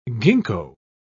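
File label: "gingko", English, British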